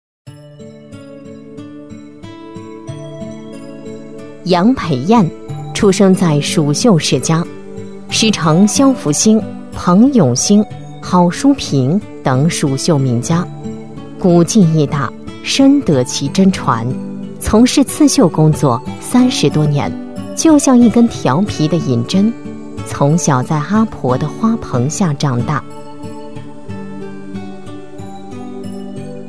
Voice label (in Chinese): 平稳讲述